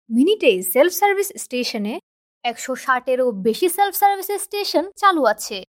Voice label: Female Bengali; Bromberg & Associates